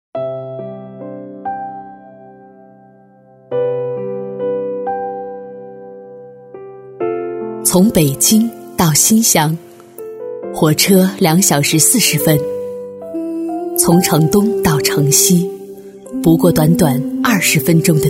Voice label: 女 招商宣传 深情 感人

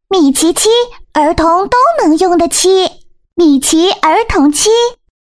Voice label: 女童 可爱女孩5-6岁; 米奇儿童漆

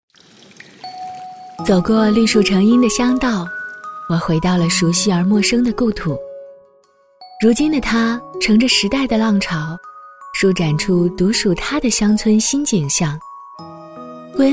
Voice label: 女, 年轻旁白自述, 年轻 温馨 甜美; 自然诉说; 紫南村; 磁性甜美

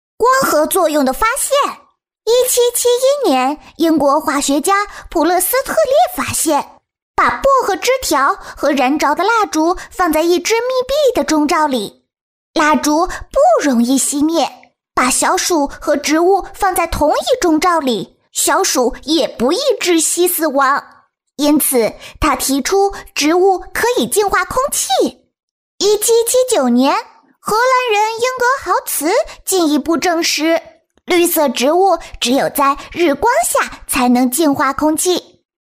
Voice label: 女童 科技馆童声简介 5-7岁女孩

